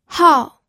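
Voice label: "hao", Mandarin Chinese, fourth tone; pinyin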